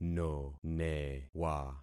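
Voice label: "nonewa", concatenated syllables; Affixing experiment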